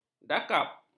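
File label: "dakap", Malay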